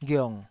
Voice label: "ghiong3", Taiwanese syllable